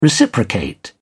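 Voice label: "reciprocate", British English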